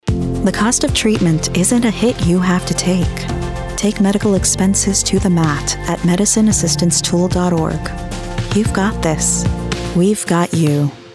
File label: Commercial